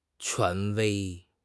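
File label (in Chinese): "权威", Chinese; HSK6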